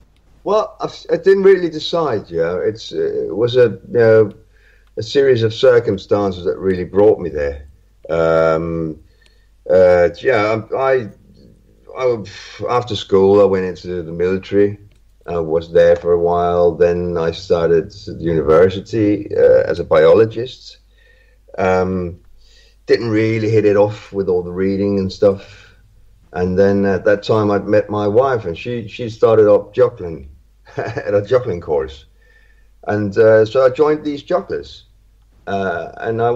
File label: Natural